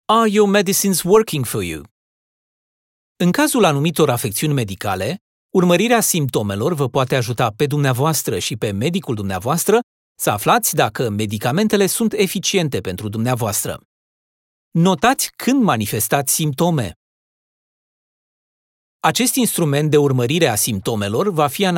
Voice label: Symptom tracker Romanian